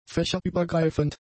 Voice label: "fächerübergreifend", German; adjectives